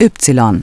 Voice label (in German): "Y geschlossen", Navigation; DISTEFORA Navigation; Mobile Navigator Notebook